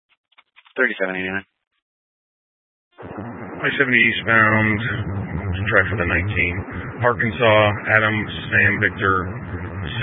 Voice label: Sheriff Dispatch; Mesa County